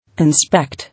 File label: "Inspect", English